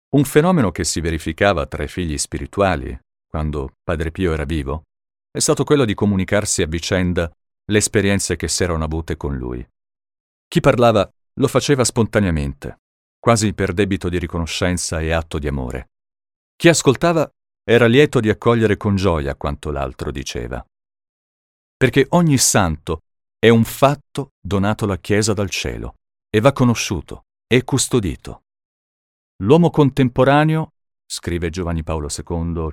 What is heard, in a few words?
documentary